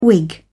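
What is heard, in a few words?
"wig", British English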